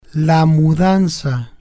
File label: "la Mudanza", spanish